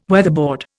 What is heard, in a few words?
"weatherboard", Indian